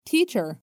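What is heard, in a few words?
"teacher", American English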